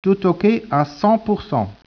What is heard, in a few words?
speech, French